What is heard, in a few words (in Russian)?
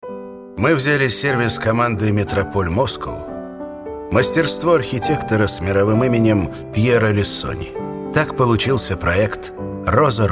Радио